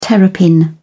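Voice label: "terrapin", English, British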